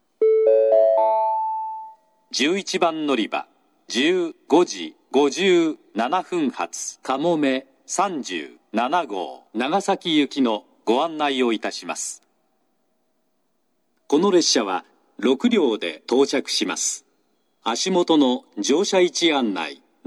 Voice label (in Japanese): 11番のりば案内放送; 西九州新幹線; かもめ37号 長崎行き; takeo onsen